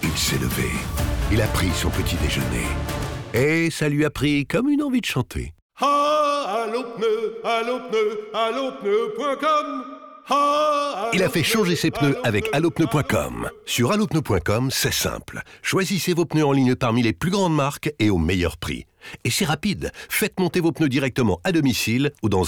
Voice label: Radio Spot; Allopneus